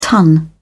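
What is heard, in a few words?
"ton", British English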